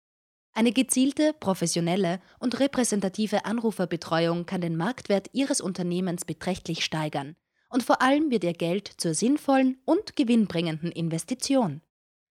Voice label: Polysound Deutsch